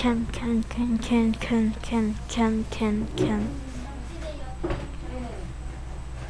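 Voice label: "can", spoken word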